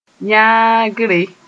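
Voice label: "yãagre", Mossi; accompanying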